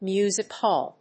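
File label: "music hall", English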